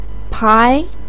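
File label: "pai", Mandarin pinyin, tone 2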